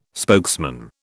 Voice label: "spokesman", British